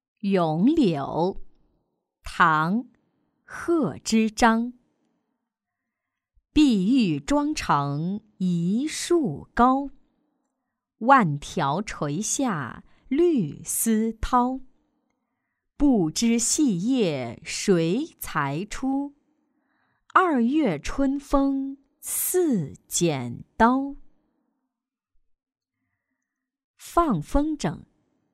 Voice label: 女 有声书

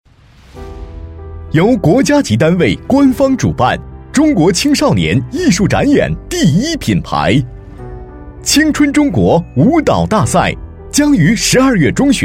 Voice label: 男 宣传片; 青春中国

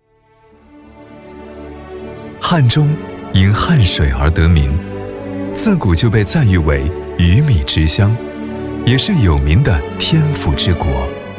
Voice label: 男, 专题, 大气, 年轻; 汉台电商

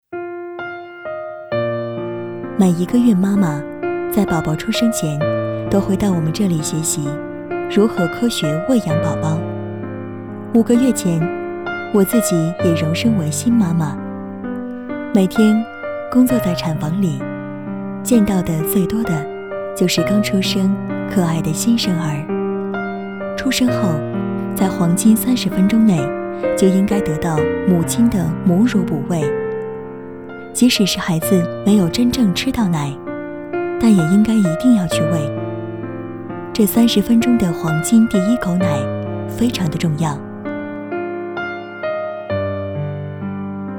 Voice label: 女 温情类 母爱; 爱在37°C

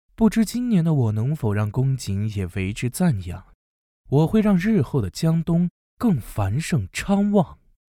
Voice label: 睿智阴柔 男青年

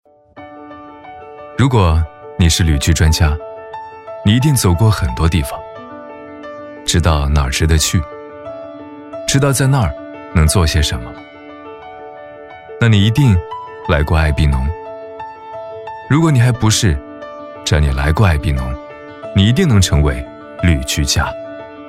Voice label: 男-宣传片--温暖--年轻--旅游宣传片; 爱必侬; 中央电视台CCTV8台标声

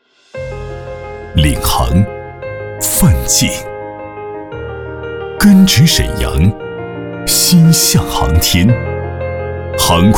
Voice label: 男 党政宣传 稳重大气; 领航·奋进

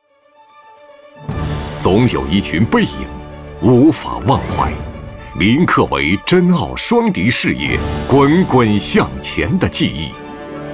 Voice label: 男 颁奖 开场词 恢弘大气

